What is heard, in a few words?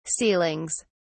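"ceilings", British English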